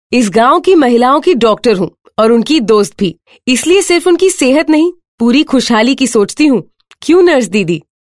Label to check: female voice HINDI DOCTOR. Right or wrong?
Right